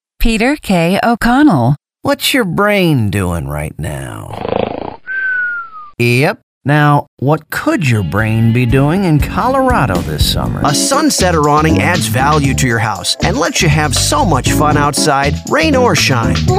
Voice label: Commercial